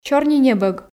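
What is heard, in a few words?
"сёрнинебӧг", Komi, female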